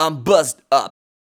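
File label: vocal male, Dance Vocals, spoken words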